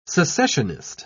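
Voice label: "secessionist", British English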